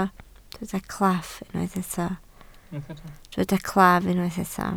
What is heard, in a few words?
"claf", Welsh